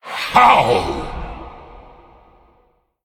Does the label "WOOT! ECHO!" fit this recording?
No